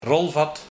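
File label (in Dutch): "Rolvat", dialect; Vat om te boteren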